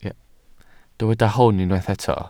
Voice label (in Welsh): "hwn" Welsh